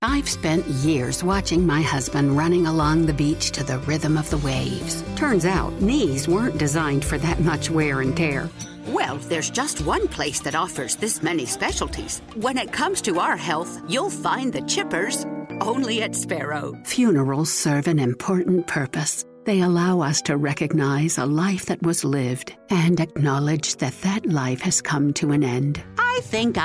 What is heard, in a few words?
Senior